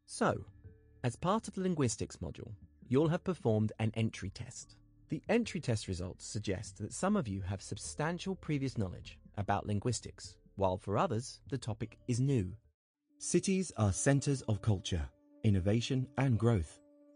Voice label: E Learning voice